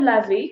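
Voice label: "laver", French; frans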